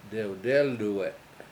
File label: "dewdElduwe7" horse, Washo